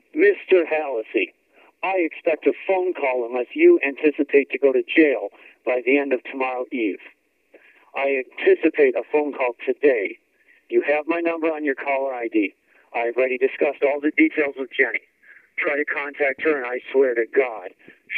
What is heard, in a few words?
Threatening VoiceMails